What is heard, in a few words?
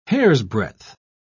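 "hairsbreadth", British English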